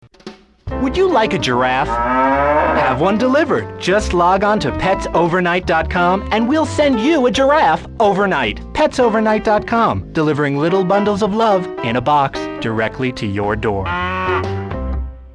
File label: commercial pets; GTA3 Radio Stations - Commercials